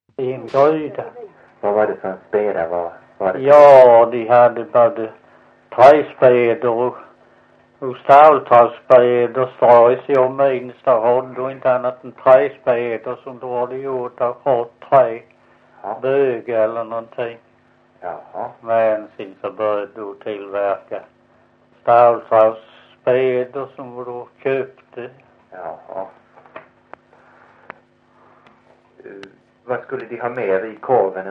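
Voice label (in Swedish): Visseltofta Skåne, svenska sagor dialekter; Folkminnen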